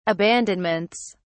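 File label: "abandonments", British English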